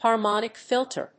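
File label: "harmonic filter", English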